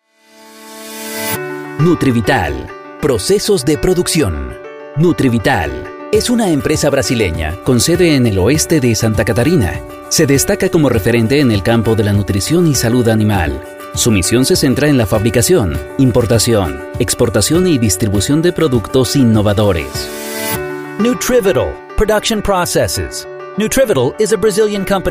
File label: Multilingual Demo